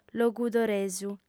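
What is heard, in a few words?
"logudoresu", female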